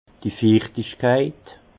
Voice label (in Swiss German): "fichtigkeit", alsace; humidité; vin